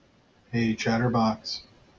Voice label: hey chatterbox, en-us